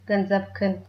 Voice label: you're welcome, tigrinya